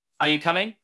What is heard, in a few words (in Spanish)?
Voice Acting es M